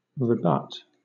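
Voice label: "rebut", British English; pronunciations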